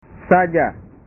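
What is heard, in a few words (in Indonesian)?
"saja", Indonesian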